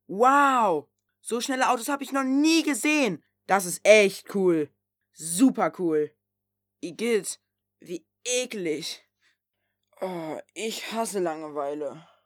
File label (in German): emotion